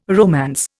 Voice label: "romance", Indian